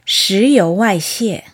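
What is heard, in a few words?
"shiyuwaixie", oil spill, Chinese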